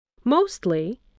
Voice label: "mostly", voice, spelling